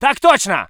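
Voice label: voice soviet infantry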